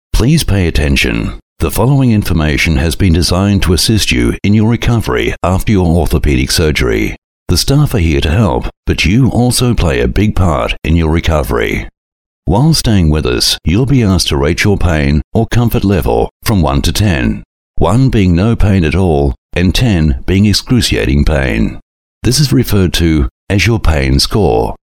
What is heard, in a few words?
Male Talent corporate